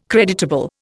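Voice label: "creditable", Indian English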